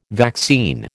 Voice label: "vaccine", American